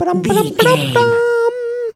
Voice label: voice fun character cute cartoon